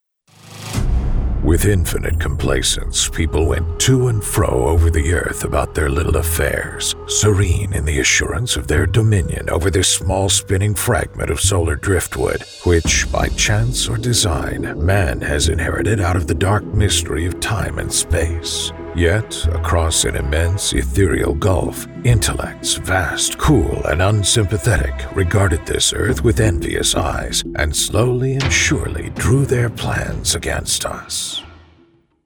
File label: Trailer Voice; War of the Worlds